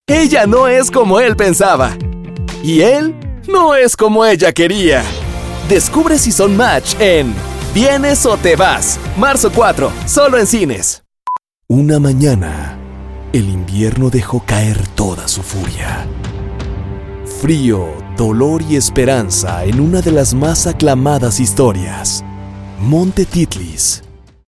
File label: spanish mexican movie trailers